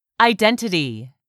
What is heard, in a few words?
"IDENTITY", english; 3000 words